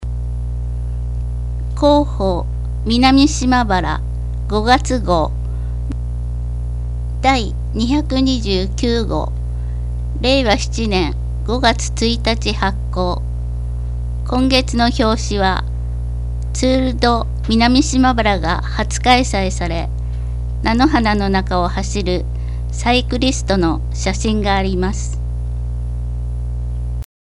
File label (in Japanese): 音訳; 声の広報紙; ツール・ド・南島原